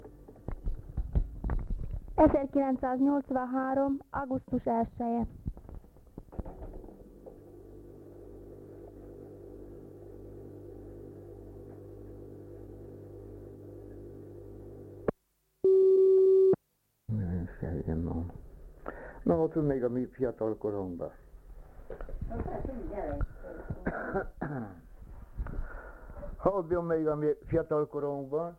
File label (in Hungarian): nyelvjaras gyujtesek